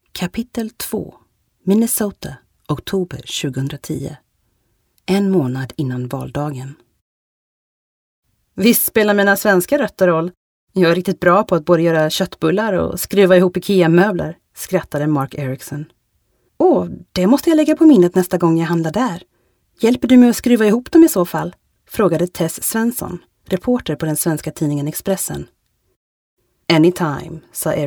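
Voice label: Swedish audio book, kvinne; svensk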